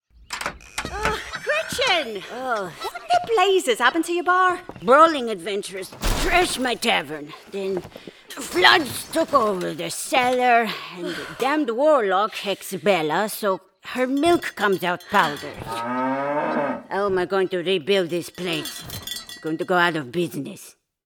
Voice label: F IR YOUNG F EU OLD GOBLIN, VIDEOGAME SAMPLE FANTASY